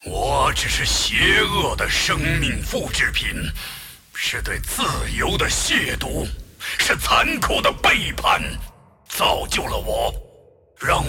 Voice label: move, zh; Aatrox